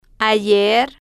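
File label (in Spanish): "ayer", spanish, female, slow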